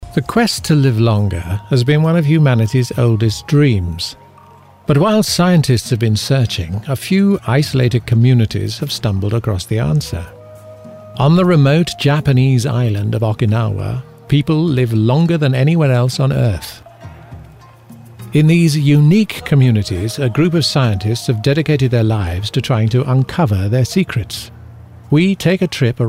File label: Narration